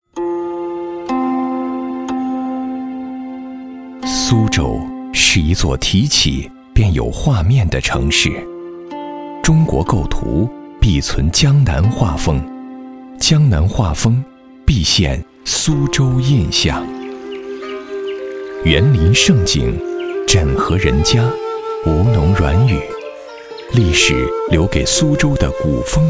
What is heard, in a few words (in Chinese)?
男-城市专题片; 自在苏州